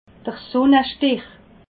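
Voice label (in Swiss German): "sunnestich", Alsatian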